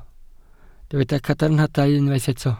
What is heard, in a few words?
"cadarnhadau", Welsh